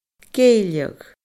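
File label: "geilleadh", Scottish Gaelic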